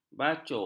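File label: "bacuk", Malay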